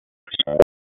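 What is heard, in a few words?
Police Northeast District Tac, radio; Raleigh; Tac 1; wake; p25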